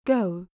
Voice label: "go", voice overs